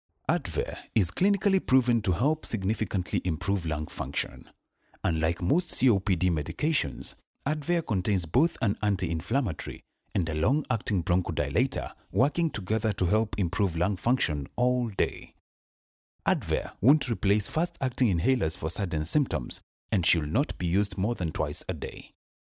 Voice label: male african voice over sales, african english